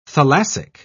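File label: "thalassic", English, British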